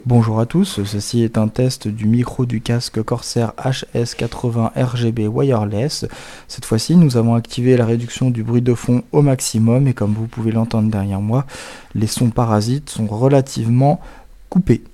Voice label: test micro casque corsair hs80 rgb wireless avec reduction de bruit

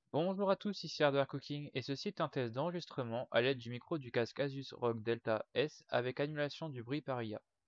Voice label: test casque asus rog delta s enregistrement avec ia

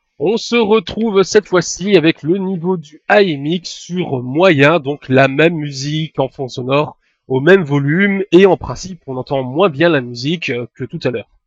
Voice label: test asus ai mic moyen

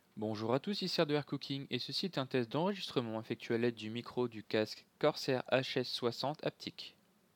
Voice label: test micro casque corsair hs60 haptic

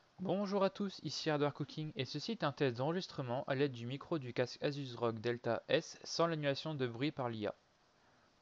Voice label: test casque asus rog delta s enregistrement sans ia

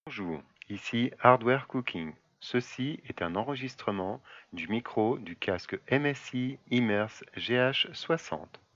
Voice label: test casque msi immerse gh60 enregistrement casque

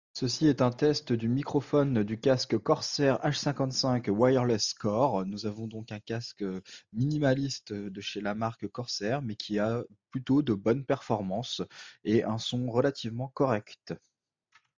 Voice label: test micro casque corsair hs55 wirelless core

